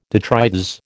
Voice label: "detritus", American